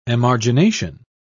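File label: "emargination", British English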